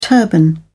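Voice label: "turban", British English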